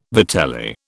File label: "vitelli", British